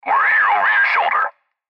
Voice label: Cb Trucker Radio; Radio Voice; Blastwave